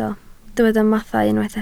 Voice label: "mathau", Welsh

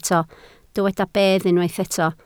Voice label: "bedd", Welsh

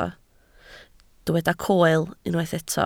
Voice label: "coel", Welsh

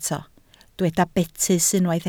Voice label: "betys", Welsh

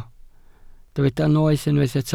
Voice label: "noeth" Welsh

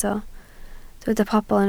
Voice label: "pobl", Welsh

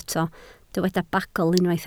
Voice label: "bagl" Welsh

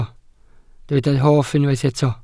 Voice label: "hoff", Welsh

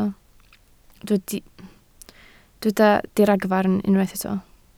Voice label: "diragfarn", Welsh

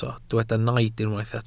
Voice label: "naid" Welsh

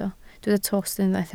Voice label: "tost", Welsh

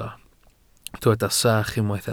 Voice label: "sach", Welsh

